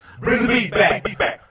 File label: male fx vox, vocal house, g; PS-28 Vocal House Samples